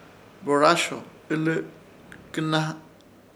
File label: "Borasho", Ishir Chamacoco; herbio borracho II